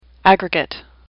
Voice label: "aggregate", English; AWL

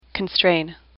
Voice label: "constrain", English; AWL

